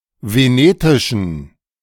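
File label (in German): "venetischen", German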